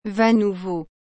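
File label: "vent nouveau", French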